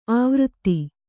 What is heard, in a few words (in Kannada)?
"ಆವೃತಿ", Kannada